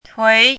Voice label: "tui2", chinese voice